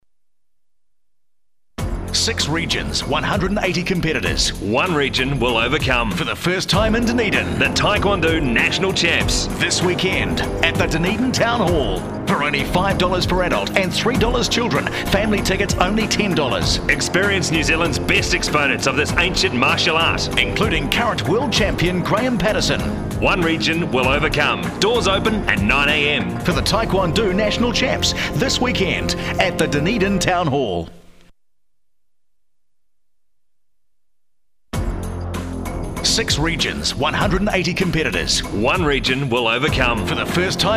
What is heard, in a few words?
radio promo; nationals